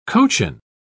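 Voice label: "cochin", British English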